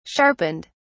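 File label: "sharpened", British English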